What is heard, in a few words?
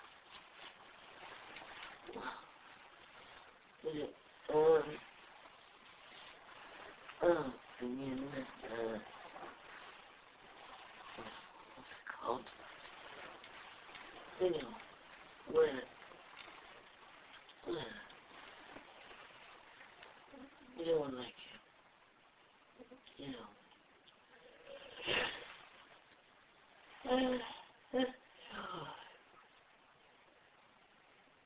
sleep talking